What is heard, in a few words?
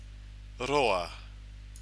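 "roa", Maori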